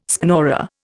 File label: "schnorrer", Indian